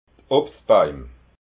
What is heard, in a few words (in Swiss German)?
"obstbaim", alsace